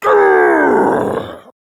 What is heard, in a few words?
Angry; Warframe; Tyl Regor Boss; Efforts